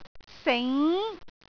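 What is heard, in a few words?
"sing2", Cantonese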